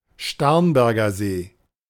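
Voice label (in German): "Starnberger See", German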